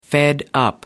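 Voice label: "fed up", English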